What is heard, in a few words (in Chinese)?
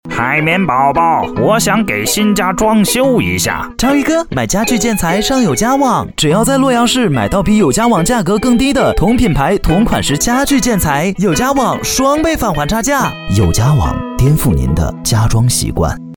男 动画角色演绎广告